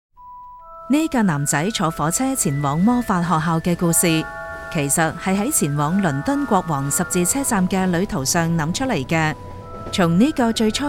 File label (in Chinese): Traditional Chinese